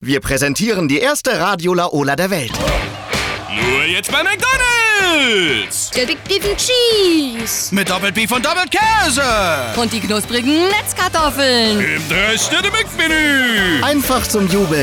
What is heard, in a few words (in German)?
Funkspot Off Stimme; McDonald